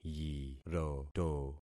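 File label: "yiroto", concatenated syllables; Affixing experiment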